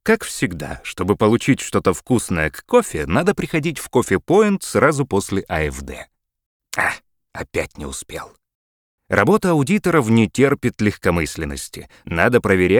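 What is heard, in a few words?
story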